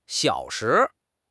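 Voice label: "xiao3 shi2", Mandarin Chinese; HSK2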